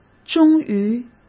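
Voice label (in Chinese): "忠于", Mandarin Chinese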